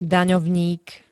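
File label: "daňovník", Slovak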